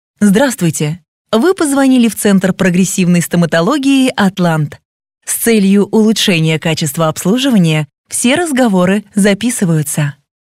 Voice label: Женский заботливый голос для стоматологии; ДИКТОРЫ ДЛЯ IVR

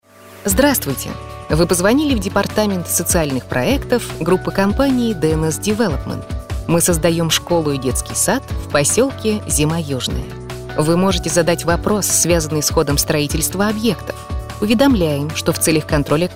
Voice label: Озвучка АТС строительной компании; ДИКТОРЫ ДЛЯ IVR

